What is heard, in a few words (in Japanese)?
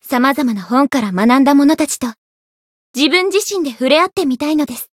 Voice story jp; veina